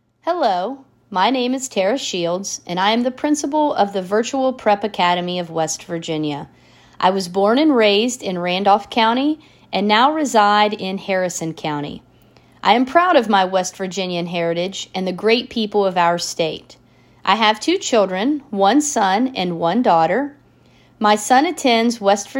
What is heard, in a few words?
Voice Memo Welcome